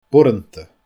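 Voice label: "boireannta", Scottish Gaelic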